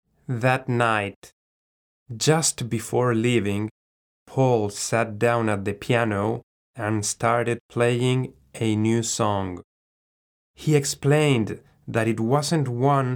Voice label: foreigner